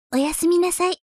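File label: "oyasumi", Japanese; announce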